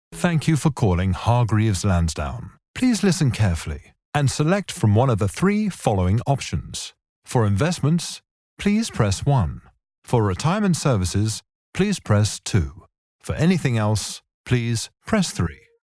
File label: ivr